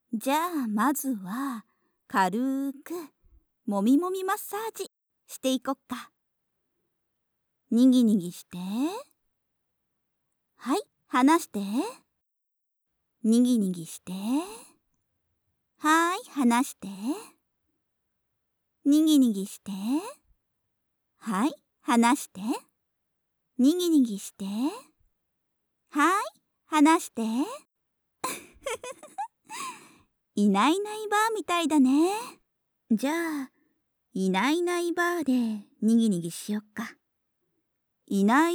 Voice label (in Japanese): いないいないばぁマッサージ, ASMR